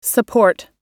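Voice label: "support", English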